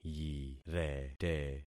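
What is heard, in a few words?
"yirete", concatenated syllables; Affixing experiment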